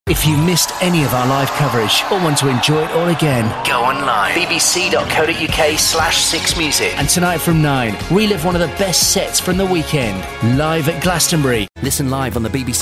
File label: radio reel, voiceover